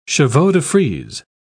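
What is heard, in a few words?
"chevaux-de-frise", British English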